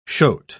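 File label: "shote", British English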